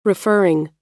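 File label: "referring", American English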